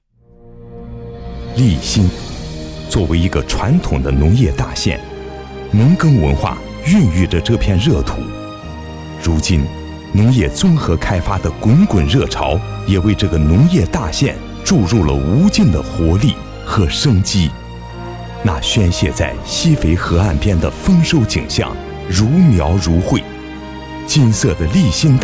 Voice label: 男 专题; 利辛

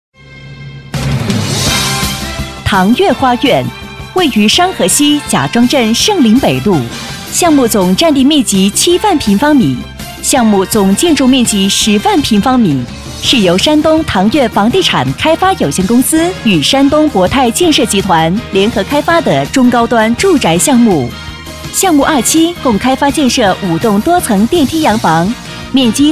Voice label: 女 广告 地产; 唐悦花苑

